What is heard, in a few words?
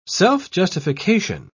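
"self-justification", British English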